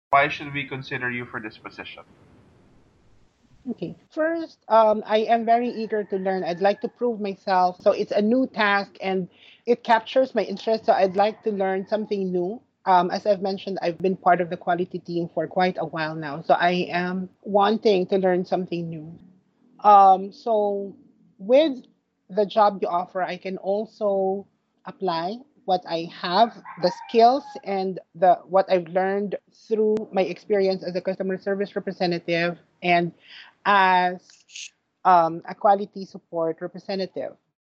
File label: fluency recording